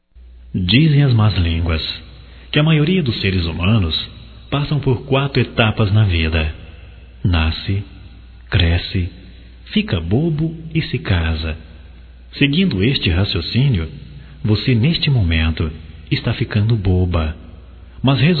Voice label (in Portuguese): Parabéns Pelo Noivado Para Ela Masculino